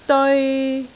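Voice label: "deoi3", Cantonese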